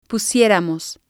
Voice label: "pusieramos", Spanish

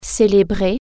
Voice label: celebrate, french

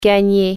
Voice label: "earn", french

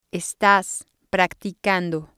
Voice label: "estas practicando", spanish

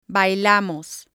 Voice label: "bailamos", spanish

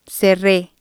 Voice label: "cerre", spanish

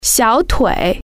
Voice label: "calf", Chinese

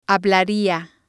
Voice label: "hablaria", spanish

